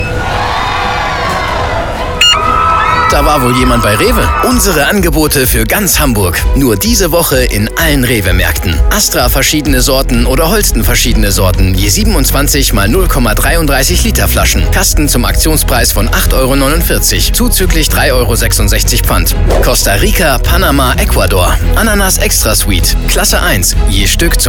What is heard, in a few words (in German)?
funkspot; rewe